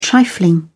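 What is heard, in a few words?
"trifling", British English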